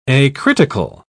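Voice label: "acritical", British English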